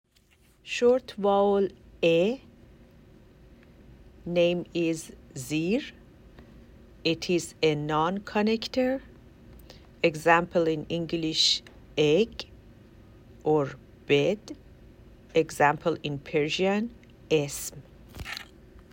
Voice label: short vowel e

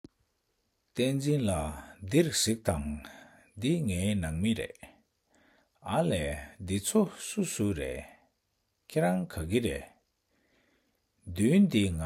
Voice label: Dialogue, Tibetan; Chapter 8 Dialogue 2

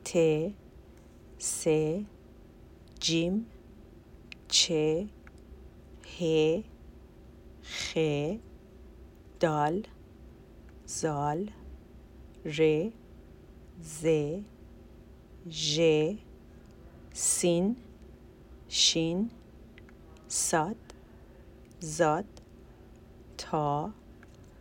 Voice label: persian alphabet in order

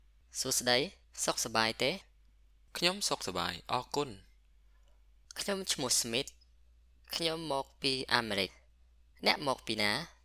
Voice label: Sample of conversation

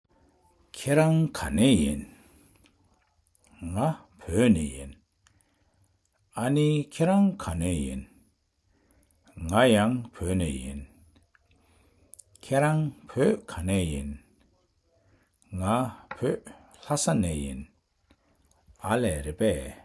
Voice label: Personal Info sentences pattern, basictibetan

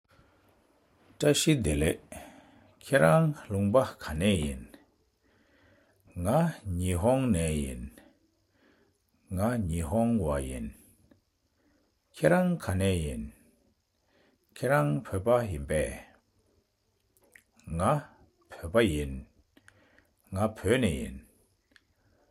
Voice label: dialogue Which country are you from, Tibetan; Chapter 5

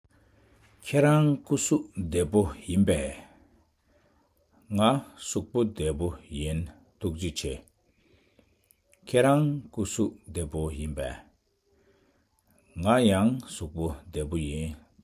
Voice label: Personal Info sentence pattern, basictibetan

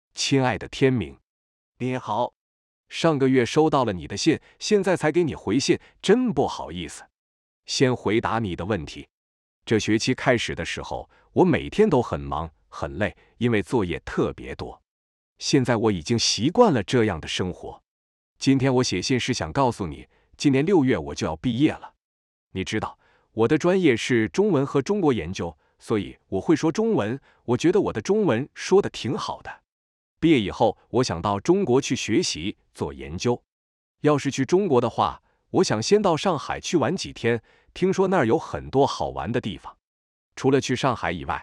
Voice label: narration

